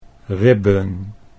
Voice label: "ribben", Dutch; 'ribs'